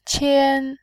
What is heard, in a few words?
"qian1", voice